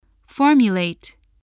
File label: "formulate", English voice, spelling